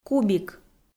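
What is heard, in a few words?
"кубик", Komi, female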